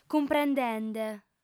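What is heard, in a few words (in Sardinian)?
"cumprendende", female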